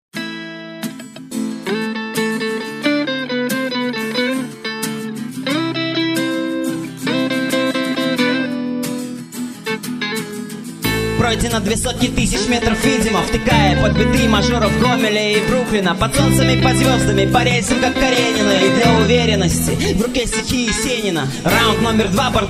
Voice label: hiphop